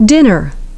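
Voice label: "dinner", English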